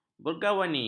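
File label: "bergalvani", Malay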